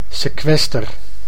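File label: "sekwester", Dutch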